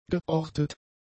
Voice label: "geortet", German; adjectives